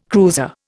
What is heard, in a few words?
"cruiser", Indian